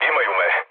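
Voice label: Serbian aircraft destroyed mood low, speech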